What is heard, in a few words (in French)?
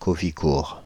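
"Cauvicourt", French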